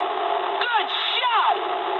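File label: English player killed enemy mood high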